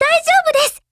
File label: LOW MOOD, JP; Carcano1891